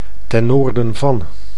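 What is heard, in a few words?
"ten noorden van", Dutch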